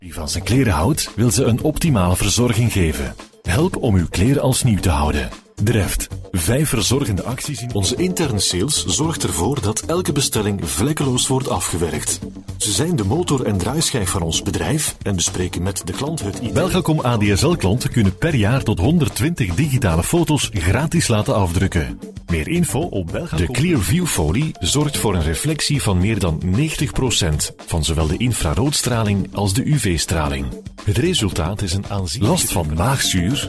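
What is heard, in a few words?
Dutch Flemish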